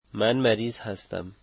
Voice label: I am sick, dari

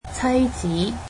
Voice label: cantonese wife

